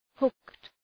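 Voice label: "hooked", English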